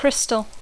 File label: "crystal", English